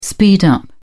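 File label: "speed up", English, British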